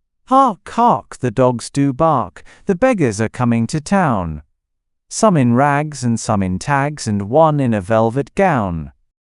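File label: male British; Hark Hark the Dogs Do Bark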